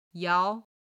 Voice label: "yao2", chinese

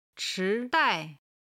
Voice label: "chi2dai4", chinese

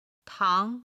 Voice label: "tang2", chinese

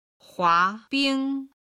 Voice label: "huabing", Chinese

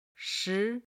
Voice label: "shi", Chinese

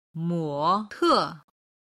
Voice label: "mo te", Chinese

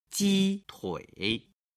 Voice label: "jitui", Chinese

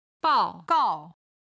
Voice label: "bao4 gao4", Chinese

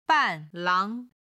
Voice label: "banlang", chinese

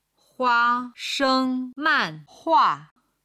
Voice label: "hua sheng man hua", Chinese

